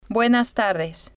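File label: "buenas Tardes", spanish, female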